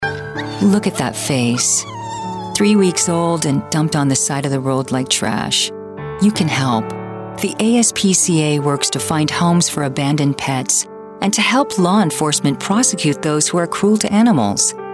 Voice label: english american political ads

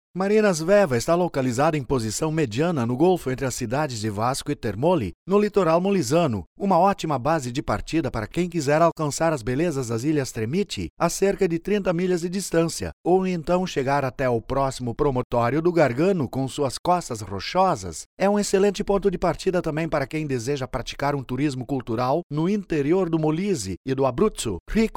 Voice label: portuguese brazilian audio guides; Voice over talent, with a warm, clear and friendly voice

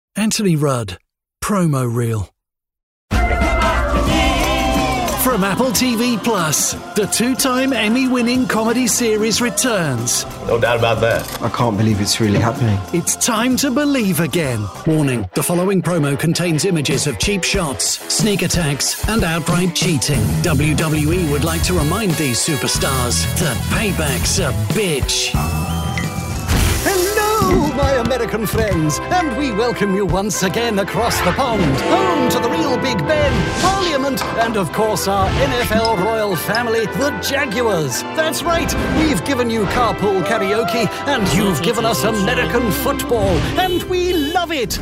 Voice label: english british tv shows

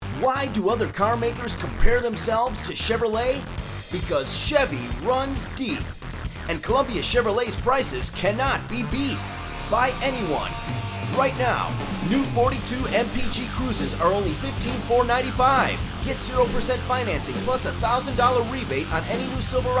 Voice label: english american automotive

